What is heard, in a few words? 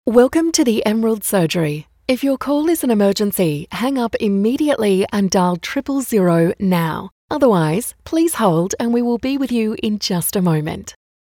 Surgery Greeting; Emerald Surgery